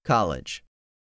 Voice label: "college", english; 3000 words